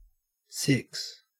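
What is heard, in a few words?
"six" English, Australian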